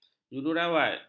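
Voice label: "jururawat", Malay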